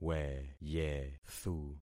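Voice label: "weyethu", concatenated syllables; Affixing experiment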